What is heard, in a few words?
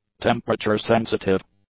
"temperature-sensitive", English; adjectives